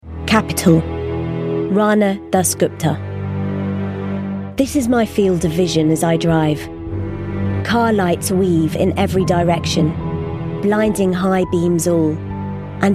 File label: audiobook